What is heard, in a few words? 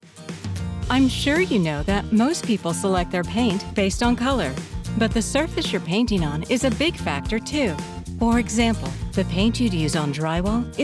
eLearning